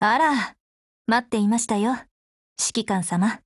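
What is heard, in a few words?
Japanese, Voice; GF Alarm; RPK16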